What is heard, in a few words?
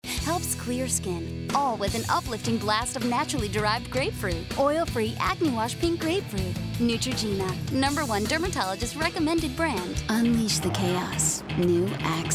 commercial, commercial women